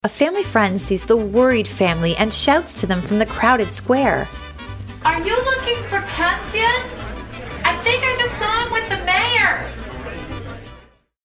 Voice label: narrator